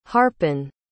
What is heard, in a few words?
"harpin", pronounce